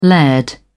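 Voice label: "laird", British English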